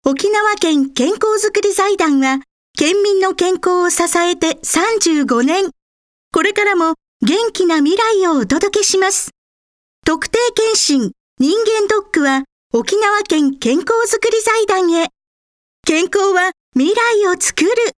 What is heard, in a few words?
local bus announce